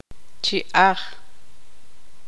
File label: tzutujil; boca